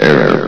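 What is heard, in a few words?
"error", male; Source Engine; bshift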